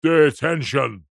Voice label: human old grumpy man english teacher says detention; zapsplat